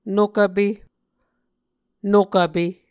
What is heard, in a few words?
"nok vpe", Muscogee